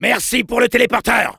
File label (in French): Soldier thanks for the teleporter, fr; "Merci pour le téléporteur."; Soldier responses/fr